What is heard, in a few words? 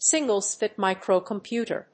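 "single-chip microcomputer", English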